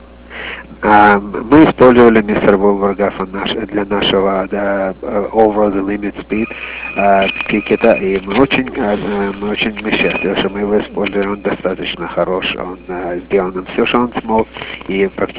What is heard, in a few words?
russian